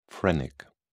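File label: "phrenic", British English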